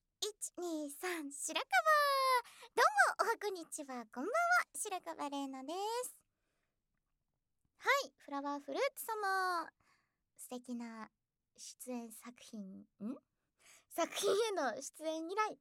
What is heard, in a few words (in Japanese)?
収録直後フリートーク; オタクOL、絶叫電気椅子!